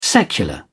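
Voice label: "secular", British English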